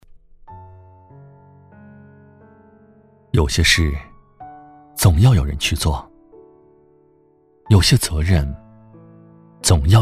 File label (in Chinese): 男 情感独白; 警魂